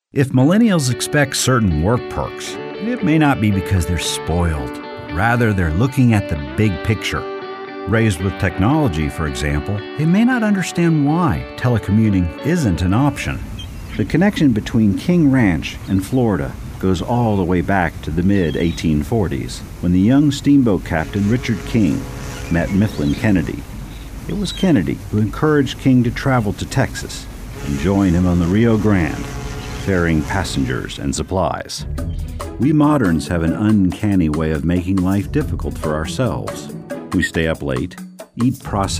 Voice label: Narration